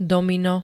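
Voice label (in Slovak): "domino", Slovak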